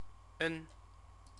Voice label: "ng", Chinese; CTCFL; University of Oxford